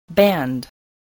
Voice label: "band", english

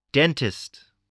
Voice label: "dentist", English

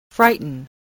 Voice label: "frighten", English voice, spelling

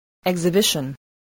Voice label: "exhibition", voice

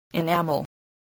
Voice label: "enamel", spelling

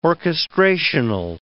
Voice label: "orchestrational", English word

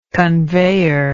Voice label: "conveyor", English

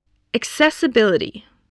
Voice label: "accessibility", English voice

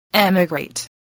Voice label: "emigrate", spelling

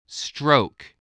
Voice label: "stroke", spelling

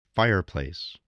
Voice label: "fireplace", voice

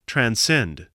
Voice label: "transcend", voice

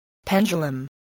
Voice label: "pendulum", English, voice

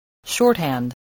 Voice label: "shorthand", spelling

